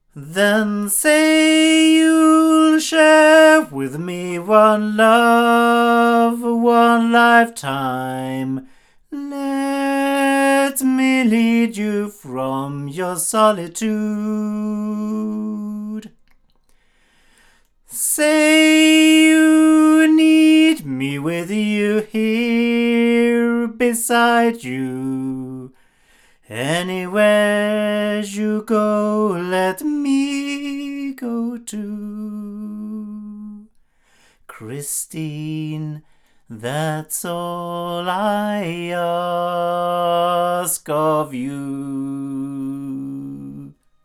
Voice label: Sontronics Helios omni male, microphones recordings